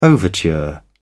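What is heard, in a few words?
"overture", English, British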